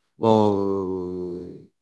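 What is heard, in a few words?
Voice Acting, Spanish, male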